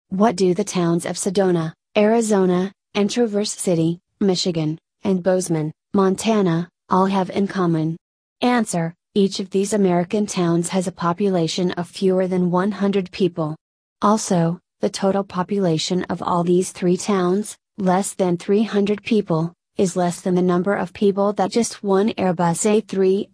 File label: audio usa; airbus a380